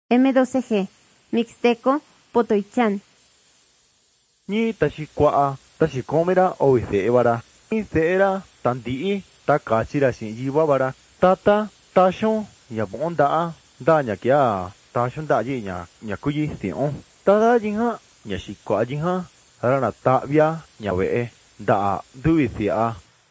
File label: mixtec diagnostic spanish mexico